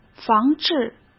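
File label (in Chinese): "防治", Mandarin Chinese; hsk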